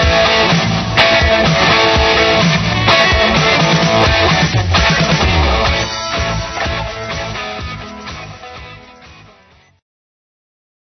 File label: vintage music radio durham